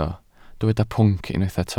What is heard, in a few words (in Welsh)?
"pwnc", Welsh